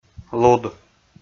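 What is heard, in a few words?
"луд", Komi, male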